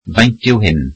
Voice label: welsh how much is this